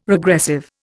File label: "regressive", Indian